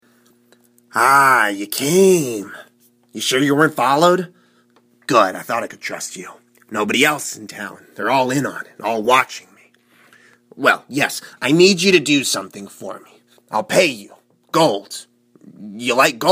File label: bosmer male audition, wood elf voice auditions